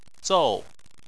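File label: "zou" fourth tone, chinese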